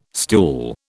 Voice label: "stool", British

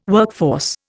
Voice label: "workforce", Indian

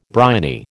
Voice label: "briny", American

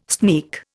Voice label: "sneak", Indian

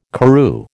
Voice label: "Karroo", American

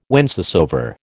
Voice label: "whencesoever", American

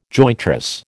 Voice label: "jointress", American

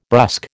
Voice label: "brusque", American

